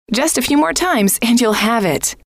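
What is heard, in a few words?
just a few more times and you ll have it, female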